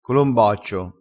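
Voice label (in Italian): "colombaccio", dialettale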